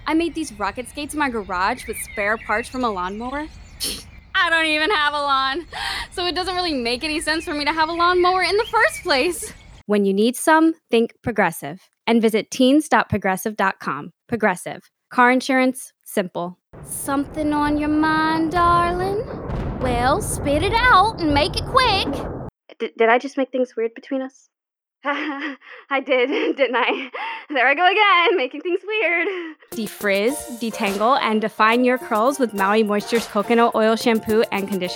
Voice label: VO Reel